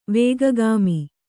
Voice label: "ವೇಗಗಾಮಿ", Kannada; vēga gāmi; noun